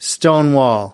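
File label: "stonewall", American English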